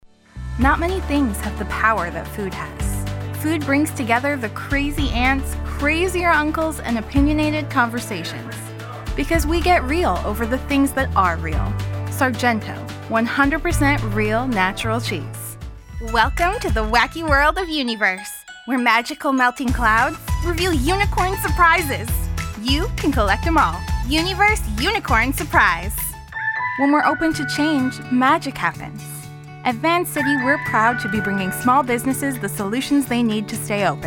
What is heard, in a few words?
commercial